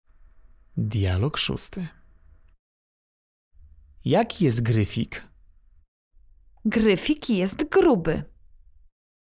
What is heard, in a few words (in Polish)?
dialog